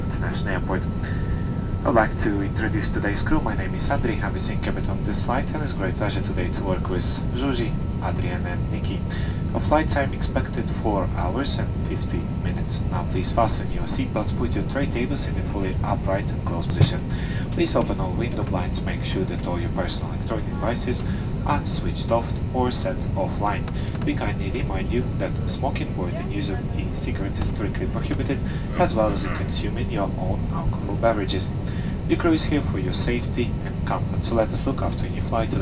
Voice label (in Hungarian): szemelyzet bemutatasa angolul es magyarul, repulo